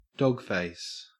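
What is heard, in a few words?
"dogface", Australian English; pronunciations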